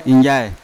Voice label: "injai", Yawa; father

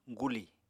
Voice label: "guli", apatani

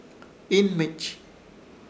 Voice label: "Ihnmich" aterrisar, Ishir Chamacoco

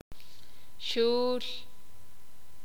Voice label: sakapulteko; pito

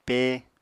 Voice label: "pé", Apatani

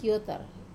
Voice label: "kotar", Wamesa; narrow

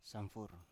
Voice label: "samfur", Biak

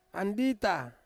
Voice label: "andita", bread fruit, Ansus

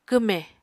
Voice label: "kwmè", apatani

